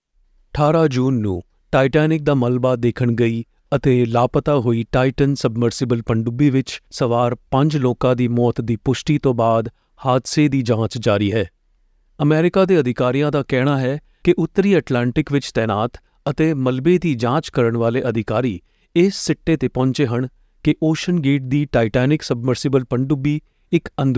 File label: punjabi male